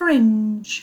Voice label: "fringe", English

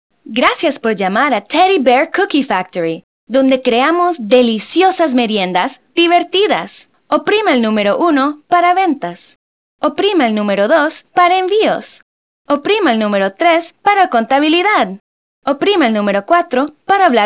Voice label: Spanish

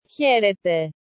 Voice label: "Chaírete" Greek

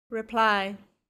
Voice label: "reply", English

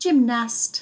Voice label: "gymnast", English

